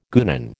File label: "guenon", American